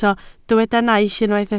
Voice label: "naill", Welsh

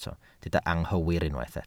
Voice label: "anghywir", Welsh

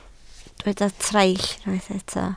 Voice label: "traill", Welsh

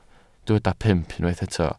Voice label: "pump", Welsh

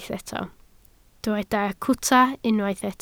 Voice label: "cwta", Welsh

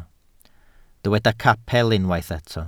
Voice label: "capel", Welsh

